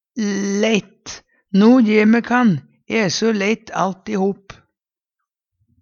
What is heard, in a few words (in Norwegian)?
"leitt", Numedalsmål; lei, trøytt av; Adverb